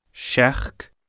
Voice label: "seachd", Scots Gaelic